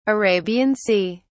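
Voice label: "Arabian Sea", British English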